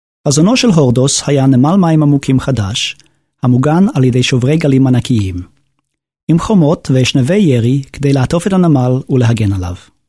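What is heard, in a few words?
Hebrew M Narration, male; voice over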